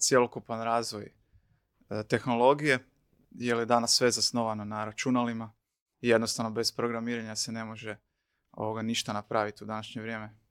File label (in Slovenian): Programiranje intervju